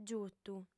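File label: "giutu", Sardinian, female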